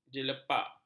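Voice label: "jelepak", Malay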